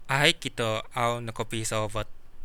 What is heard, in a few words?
long time no see, kadazan